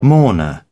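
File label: "mourner", British English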